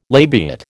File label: "labiate", American